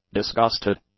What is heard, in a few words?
"disgusted", English; adjectives